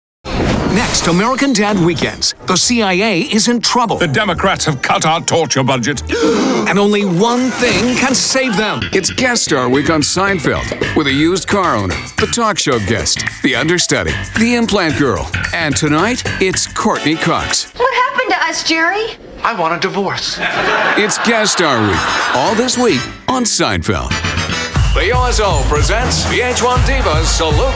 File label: promos comedy; men